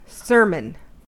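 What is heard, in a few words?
"sermon", American English; pronunciations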